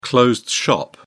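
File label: "closed shop", British English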